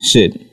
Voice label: "shit", Voice expletives